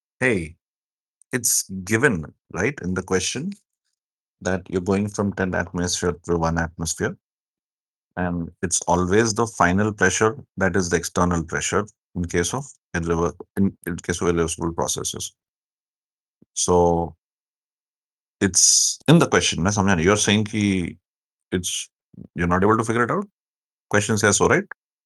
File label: voice message